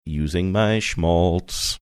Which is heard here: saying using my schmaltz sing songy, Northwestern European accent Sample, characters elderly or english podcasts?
saying using my schmaltz sing songy